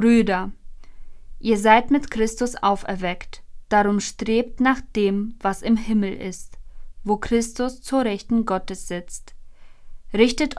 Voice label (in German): Lesung Ostern